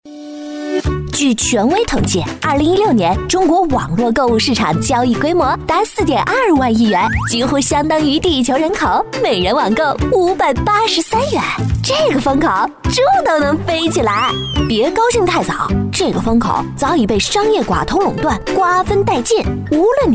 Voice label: 女 俏皮可爱; 嗨购

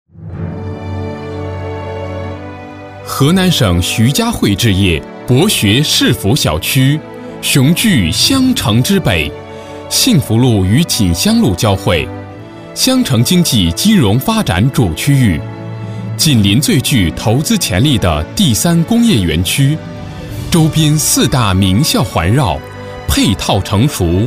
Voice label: 男23 地产（大气）

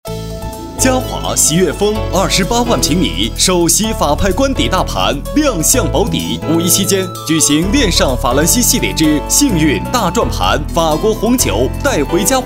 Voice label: 男 房地产; 实惠年轻; 嘉华玺悦峰房地产

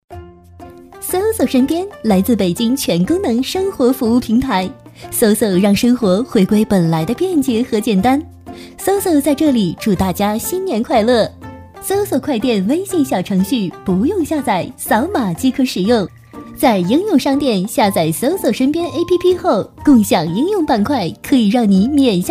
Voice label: 女52; 实惠甜美; 嗖嗖app

